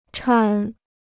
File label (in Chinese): "搀", Chinese